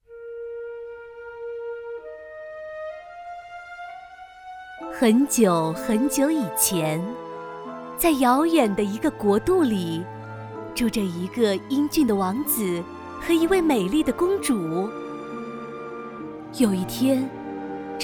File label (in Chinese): 女 童话旁白 童话故事 旁白